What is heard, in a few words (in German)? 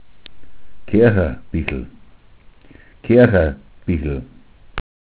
"kerchabichl", dialekt